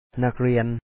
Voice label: "ນັກເລີຍນ", Lao; na#k-li2An

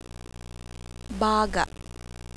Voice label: glot baga', tagalog consonants

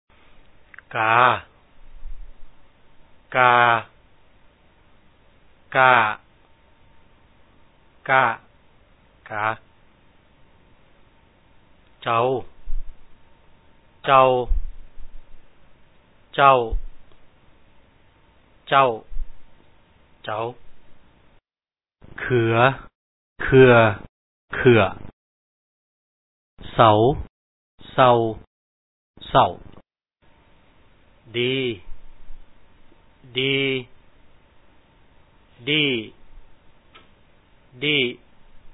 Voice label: lao tone chart; Lao tone makrs; Exercise 1: Reading Syllables with Tone Marks; pronunciation

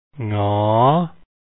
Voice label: ng sound, lao

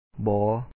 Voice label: "bO#O", Lao; no, not; ບໍ

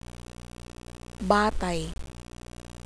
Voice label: "batay", Tagalog, glot; Consonants; Phonology

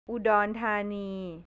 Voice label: "udonthani", thai; อุดรธานี; northeast; แผนที่ประเทศไทย

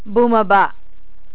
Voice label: "bumaba", Tagalog

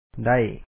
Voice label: "ໄດ້", Lao; da1

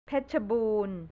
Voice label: "petchaboon", Thai; เพชรบูรณ์; north; แผนที่ประเทศไทย

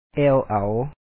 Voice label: "EEw aaw", Lao; ແອ່ວເອົາ

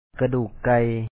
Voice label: "kadu3uk ka#y", Lao; chicken bone

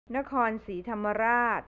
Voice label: "nakorn", thai; นครศรีธรรมราช; south; แผนที่ประเทศไทย

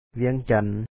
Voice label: "ວຽງຈັນ", Lao; wia2Ncan Vientiane

